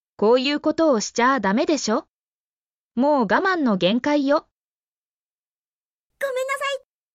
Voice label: Emotion Level: Complete loss of patience, total frustration; Japanese; apology phrases; 「こういうことをしちゃダメでしょ！！！もう我慢の限界よ！！（koiukotowoshichadamedesho！！！mogamannogenkaiyo！！）」「ごめんなさい！（gomennasai！）」